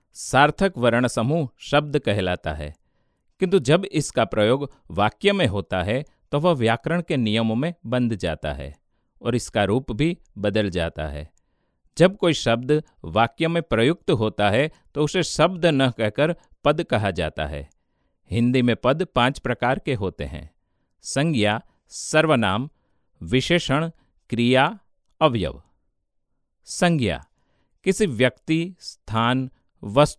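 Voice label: elearn Hindi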